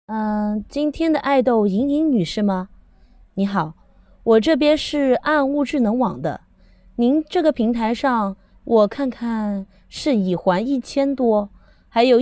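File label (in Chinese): 试音严厉客服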